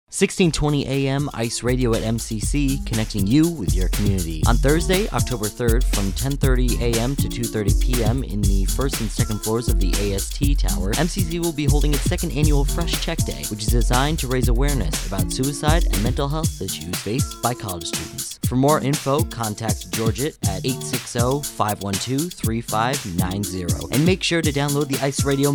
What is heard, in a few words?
PSA w music beds; Fresh Check Day